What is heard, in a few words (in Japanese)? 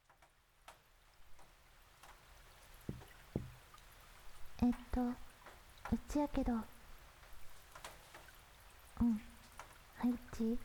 宮崎弁 (雨降りバージョン), ASMR; 田舎の古宿ン娘の夜伽